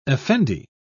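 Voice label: "effendi", British English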